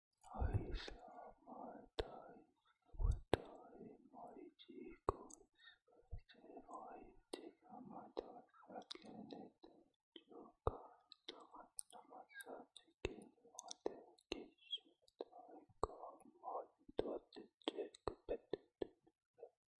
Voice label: Whispering